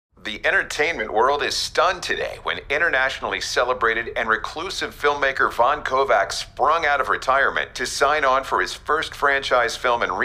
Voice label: promos; wonderman